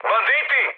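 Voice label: Serbian enemy detected mood high; War Thunder; speech srb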